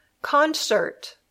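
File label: "concert", English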